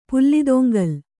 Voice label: "ಪುಲ್ಲಿದೊಂಗಲ್", Kannada

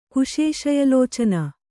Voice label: "ಕುಶೇಶಯಲೋಚನ", kannada

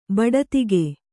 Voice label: "ಬಡತಿಗೆ", Kannada

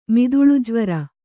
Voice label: "ಮಿದುಳುಜ್ವರ", Kannada

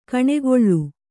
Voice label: "ಗಾನಕಲೆ", Kannada

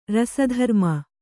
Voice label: "ರಸಧರ್ಮ", Kannada

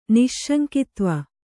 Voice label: "ನಿಸ್ಸಂಗತ್ವ", kannada

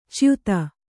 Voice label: "ಚ್ಯುತ", Kannada

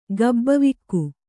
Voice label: "ಗಬ್ಬವಿಕ್ಕು", Kannada

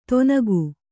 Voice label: "ತೊನಗು", Kannada

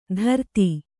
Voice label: "ಧೃತಿ", kannada

